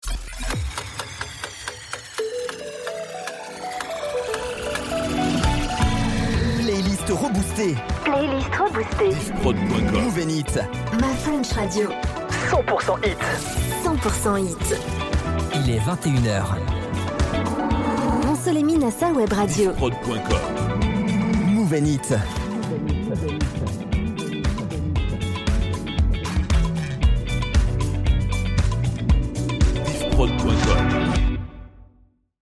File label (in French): Top H 21h Avec Cage, habillage; Moov N Hits